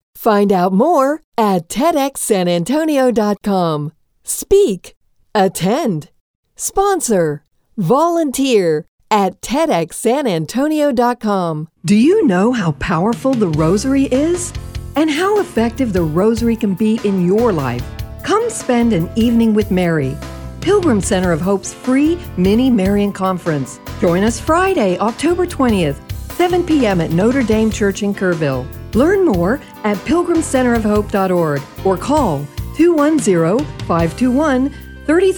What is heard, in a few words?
Radio PSA